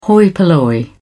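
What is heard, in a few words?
"hoi polloi", British English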